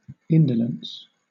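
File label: "indolence", British English; pronunciations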